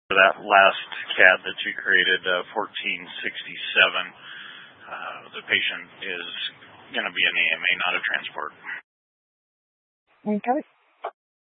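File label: Incident Tactical 3, Scanner audio recording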